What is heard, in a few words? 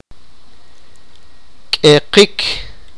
sipakapense; pull